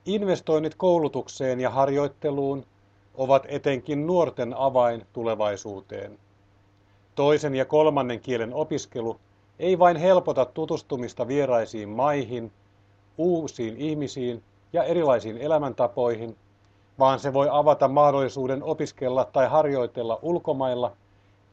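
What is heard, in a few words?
1 minute trial dictation Finnish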